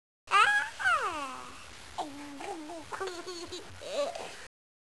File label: coo